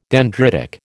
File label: "dendritic", American